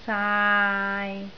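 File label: "saai3", Cantonese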